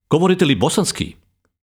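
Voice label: Serbian, male, Govorite li bosanski?; Sprechen Sie Bosnisch?; Serbisch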